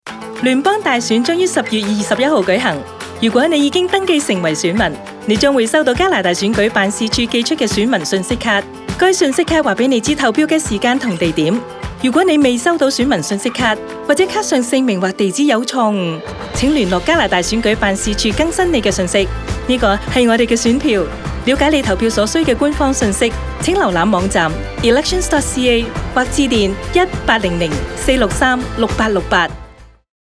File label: Cantonese, radio advert; Voter information Card